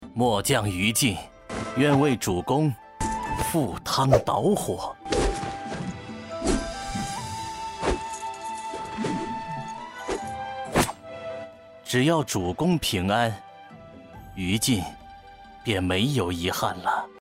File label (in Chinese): 游戏 衷心将军男